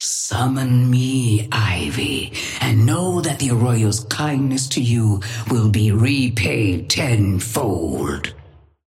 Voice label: female ally start; Patron; tengu